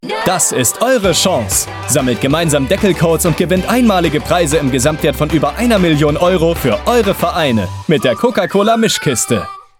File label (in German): Spots, maenner; Coca Cola